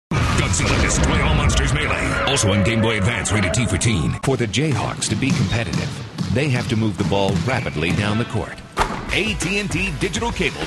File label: comm, male voice talent